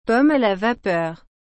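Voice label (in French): "pommes à la vapeur", French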